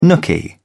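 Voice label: "nooky", British English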